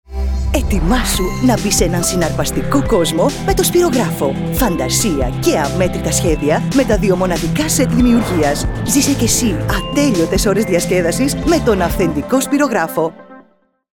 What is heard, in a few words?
Spot, greek female voice; Spirograph Toy Demo